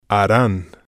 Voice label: "haran", spanish